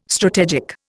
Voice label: "strategic", Indian

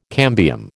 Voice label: "cambium", American

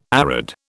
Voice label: "arid", British

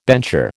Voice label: "bencher", American

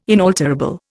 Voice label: "inalterable", Indian

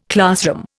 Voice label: "classroom", Indian

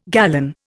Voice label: "gallon", Indian

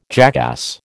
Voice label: "jackass", American

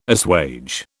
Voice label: "assuage", British

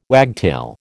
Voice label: "wagtail", American

